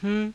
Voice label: "huu~", Hindi; हूँ

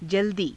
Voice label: "jaldii", Hindi

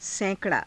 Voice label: "saika raa", hindi

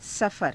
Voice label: "safara", Hindi

